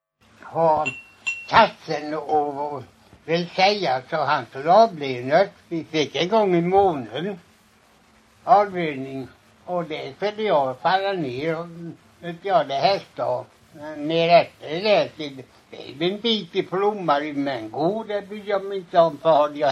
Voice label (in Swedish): Lommaryd, dialekter; Folkminnen